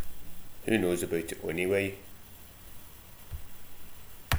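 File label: "onyway", slang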